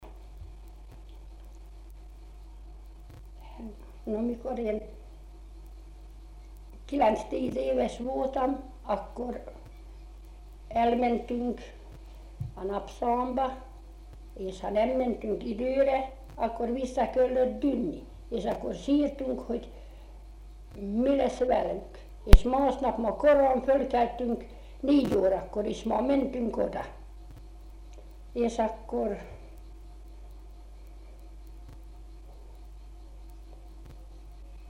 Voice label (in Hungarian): nyelvjárás gyűjtések